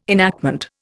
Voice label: "enactment", Indian

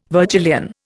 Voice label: "Virgilian", Indian

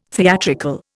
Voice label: "theatrical", Indian

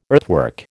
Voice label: "earthwork", American

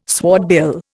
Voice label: "swordbill", Indian

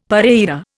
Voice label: "pareira", Indian; Dictionary

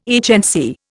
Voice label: "HNC", Indian

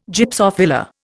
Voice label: "Gypsophila", Indian

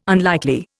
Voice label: "unlikely", Indian

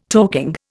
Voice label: "talking", Indian

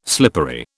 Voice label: "slippery", British

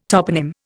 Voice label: "toponym", Indian

